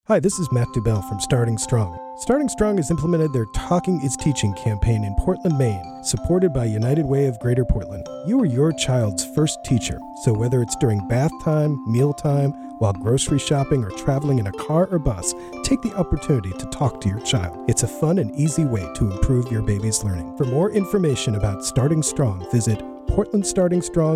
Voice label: PSA; Starting Strong